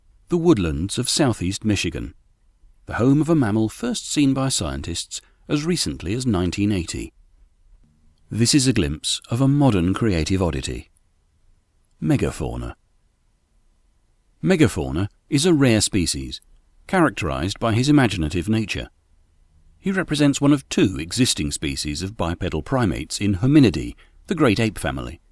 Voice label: Narrative

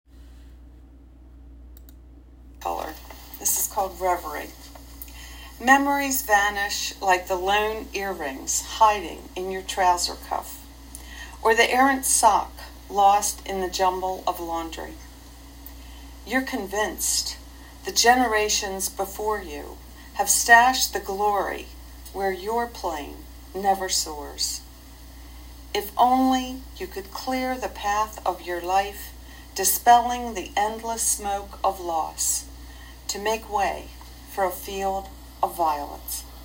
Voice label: poem; Reverie

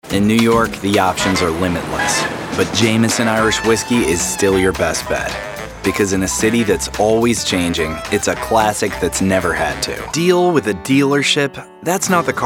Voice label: CONVERSATIONAL

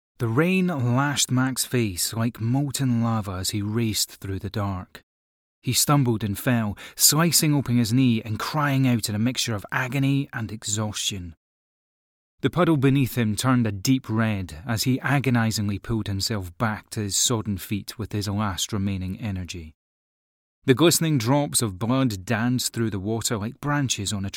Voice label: British Voice Actor audio book; Blood oath

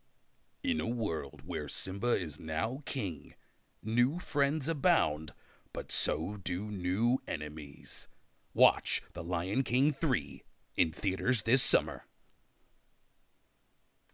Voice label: Movie Trailer Voice

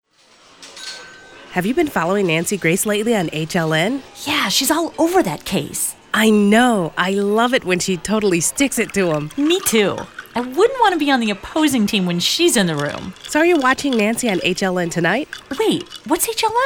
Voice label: Radio; HLN

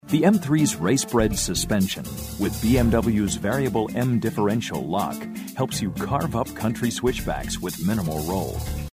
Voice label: Cool Explainer; BMW

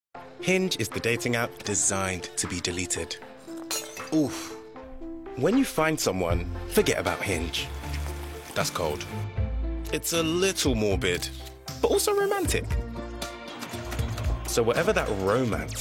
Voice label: Voiceover; Hinge - The Dating App Designed to Be Deleted

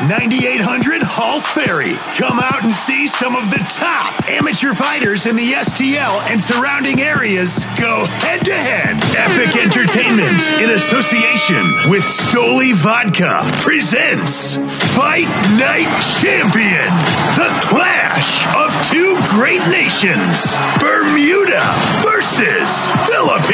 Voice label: Boxing promo

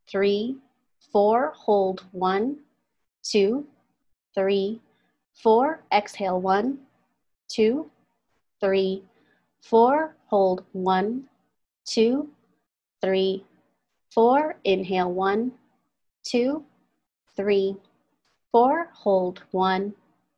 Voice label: Counted Breathing

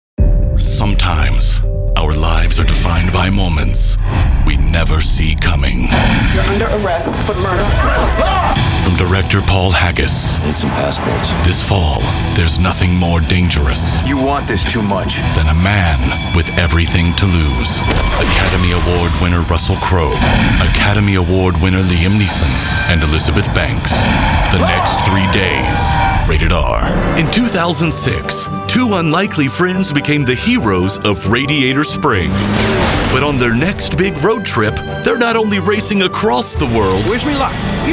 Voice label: Trailer VO

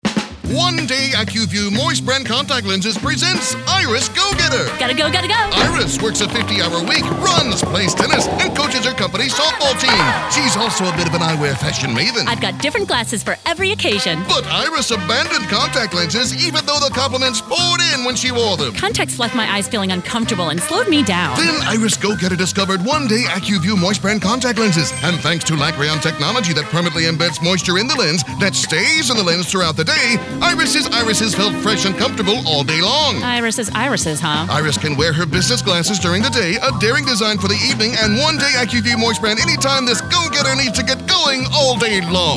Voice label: Radio Character; Acuvue

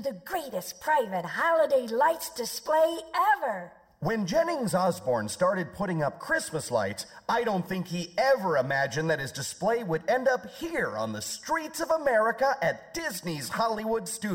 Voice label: Radio - Station Break; WJBO

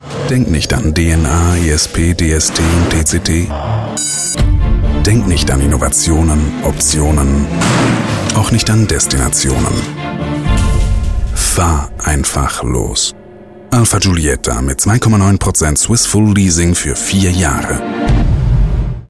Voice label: TV-Spot; Alfa Giulietta

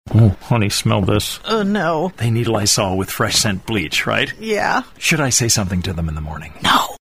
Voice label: Humorous couple; Lysol

